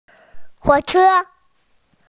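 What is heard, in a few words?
"train", Mandarin, hearing-impaired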